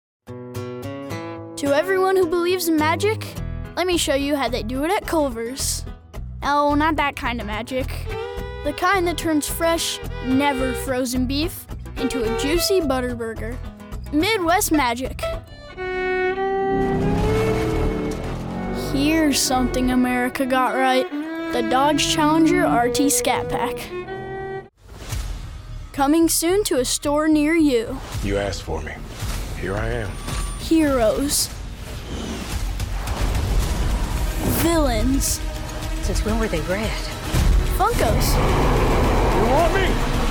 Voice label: commercial; kids